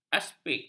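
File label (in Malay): "aspik", Malay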